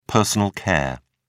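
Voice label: "personal care", British English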